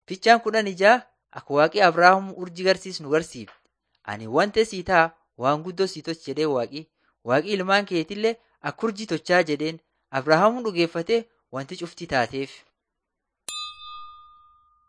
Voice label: borana sakuye